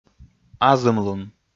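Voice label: "азымлун", Komi, male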